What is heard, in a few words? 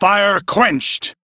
Crew Fix Fire, Male; Warframe; Railjack Crew; Arbiters Hexis